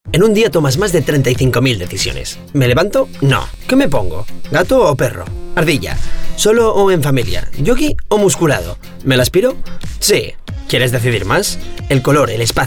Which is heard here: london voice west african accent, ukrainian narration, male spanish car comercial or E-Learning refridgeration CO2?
male spanish car comercial